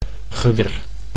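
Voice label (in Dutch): "geweer", Dutch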